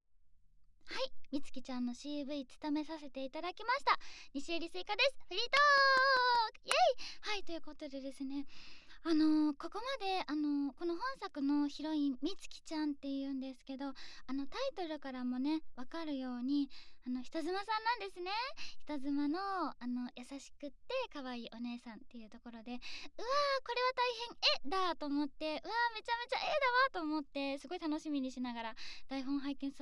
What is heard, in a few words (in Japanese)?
フリートーク; ASMR